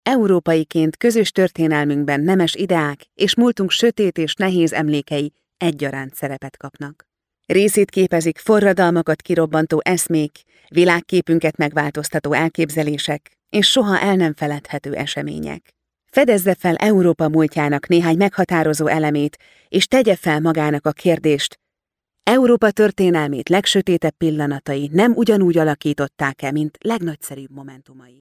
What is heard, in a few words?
HU F narration